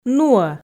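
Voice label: "нуӧ", Komi, female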